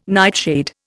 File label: "nightshade", Indian